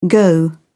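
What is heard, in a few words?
"go out", British English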